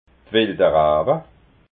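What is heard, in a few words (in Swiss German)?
"rawa", Alsatian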